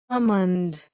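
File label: "almond", Indian English, female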